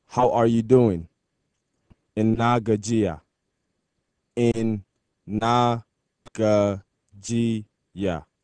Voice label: Hausa Greet